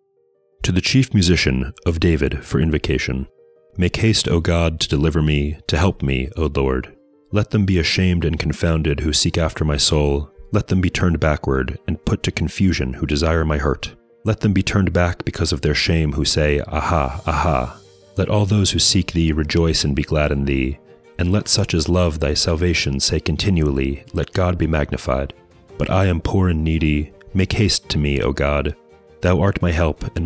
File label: poetry and prayer psalm english; Meir Soloveichik